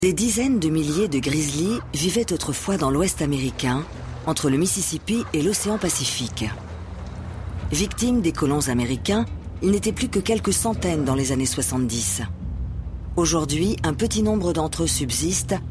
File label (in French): Documentaire Grizzlys Yellowstone